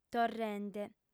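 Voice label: "torrende", female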